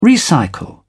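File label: "recycle", British English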